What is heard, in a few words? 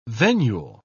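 "venule", British English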